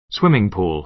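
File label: "swimming pool", American English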